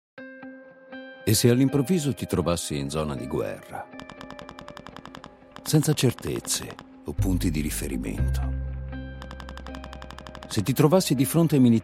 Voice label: italian movie trailers

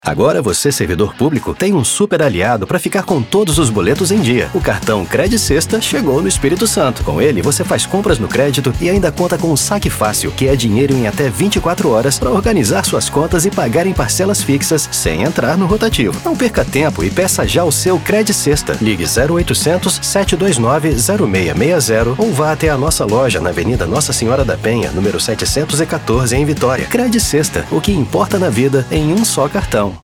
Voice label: portuguese brazilian announcements

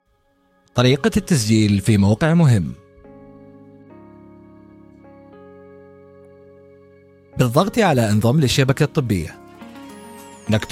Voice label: arabic explainer videos, voice actors

